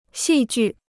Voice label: "戏剧", Chinese

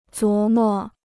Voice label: "琢磨", Chinese

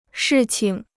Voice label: "事情", Chinese

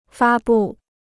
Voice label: "发布", Chinese

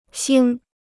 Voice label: "腥", Chinese

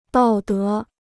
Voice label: "道德", Chinese

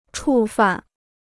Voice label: "触犯", Chinese

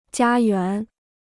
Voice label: "家园", Chinese

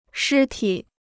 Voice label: "尸体", Chinese

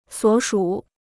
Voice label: "所属", Chinese

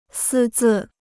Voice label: "私自", Chinese

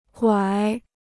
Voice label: "拐", Chinese

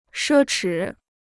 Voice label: "奢侈", Chinese

